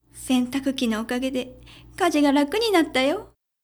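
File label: female; 洗濯機のおかげで、家事が楽になったよ。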